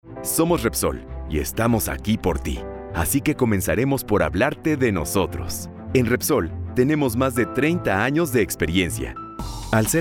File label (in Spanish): Coorporativo